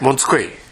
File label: "montukoe", minamiyamagata